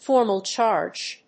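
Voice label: "formal charge", English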